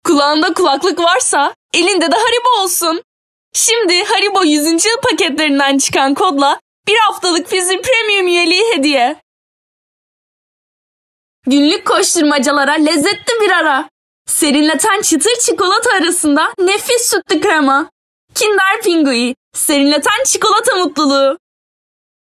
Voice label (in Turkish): Reklam